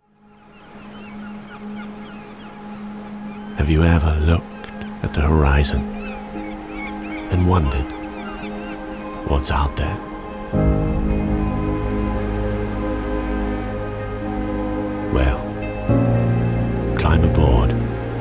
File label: Narrator; Isles of Scilly